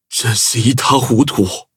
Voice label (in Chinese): defeat, zh-hant; cookie run